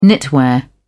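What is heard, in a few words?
"knitwear", English, British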